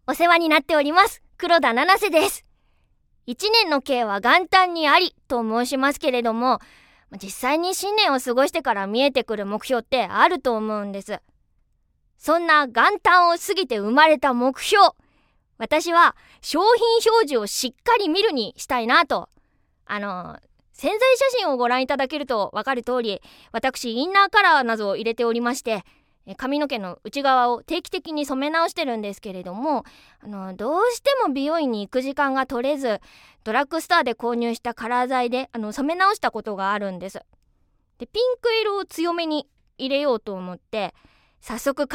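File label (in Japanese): フリートーク, 女性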